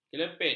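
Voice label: "kelepit", Malay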